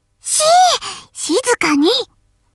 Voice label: taunt, voice commands Anime Loli; svencoop